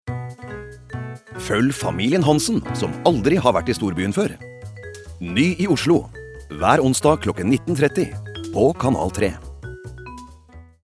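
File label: Norwegian promo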